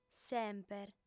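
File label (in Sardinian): "semper", female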